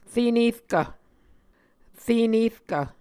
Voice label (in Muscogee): "re nerkv", muscogee